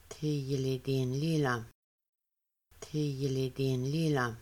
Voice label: Are you going to make a drum, Kaska; rummaking